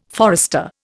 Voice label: "forester", Indian